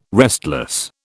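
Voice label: "restless", British English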